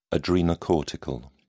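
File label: "adrenocortical", British English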